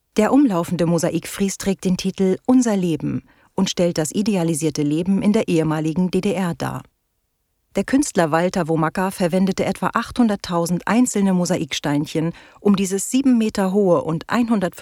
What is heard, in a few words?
Voice Over; Haus des Lehrers